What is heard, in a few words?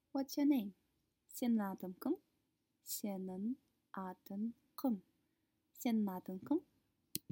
Kaz Whats your name informal